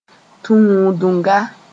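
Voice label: "tũudunga", Mossi; mate; Mooré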